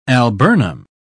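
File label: "alburnum", British English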